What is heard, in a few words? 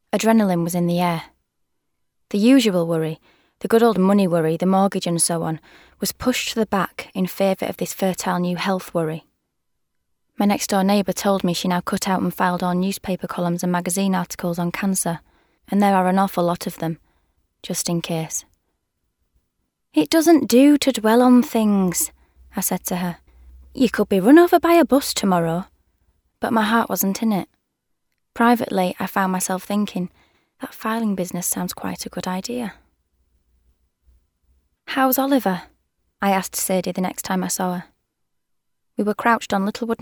Narration